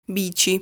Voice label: "Bici", Italian; lesson 6 articles plural